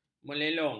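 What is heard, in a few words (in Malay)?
"melelong", Malay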